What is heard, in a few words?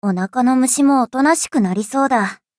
levelup ja; cookie run